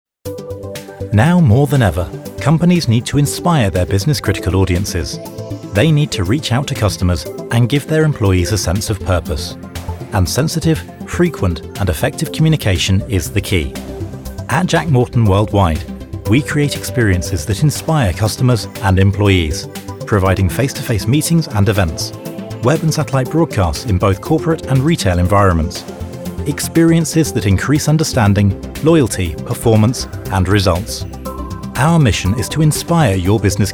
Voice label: Narrative

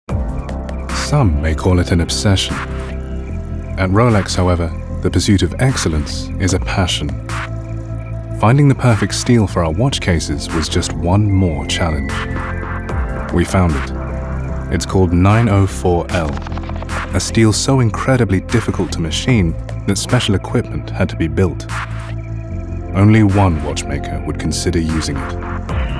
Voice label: Commercial

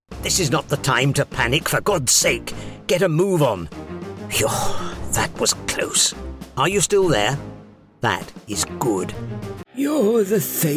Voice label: CHARACTER VOICES

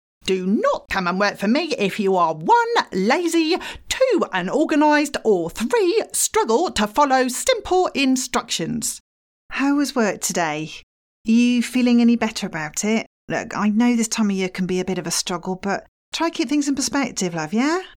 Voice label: Character

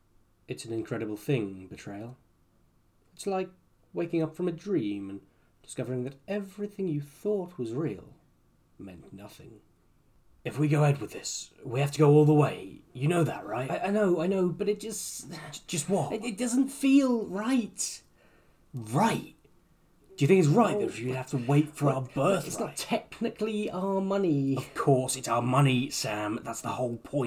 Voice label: Drama Character Noir

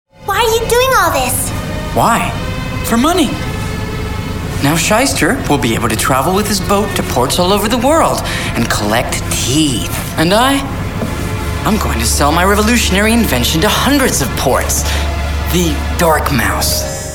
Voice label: CHARACTER

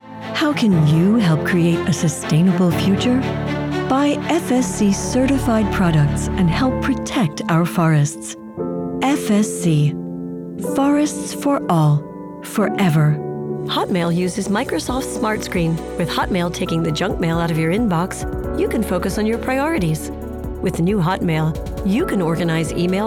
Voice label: Corporate Narration Voice

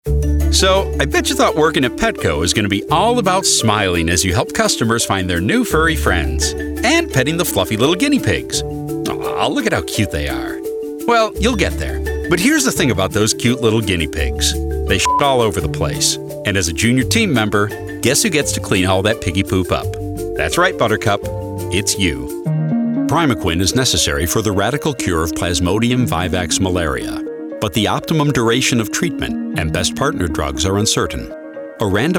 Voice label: ELearning

